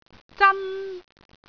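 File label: "zan1", Cantonese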